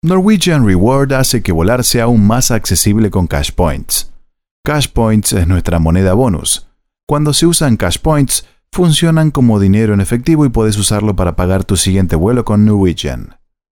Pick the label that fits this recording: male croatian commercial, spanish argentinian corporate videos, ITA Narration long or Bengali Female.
spanish argentinian corporate videos